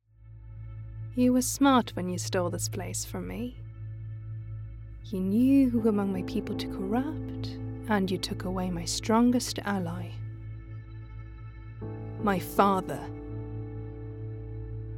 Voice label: female German English with slight German accent gaming compilation; Devine Voices